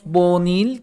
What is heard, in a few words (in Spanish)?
color - "BOONIL", Maya